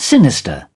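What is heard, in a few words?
"sinister", British English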